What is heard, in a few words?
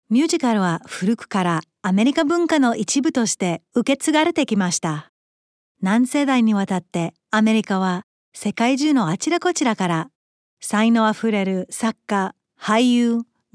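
Japanese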